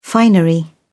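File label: "finery", British English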